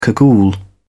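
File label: "cagoule", English, British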